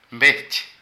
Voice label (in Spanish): Codorniz, Zapoteco de Petapa; Animales; Vocabulary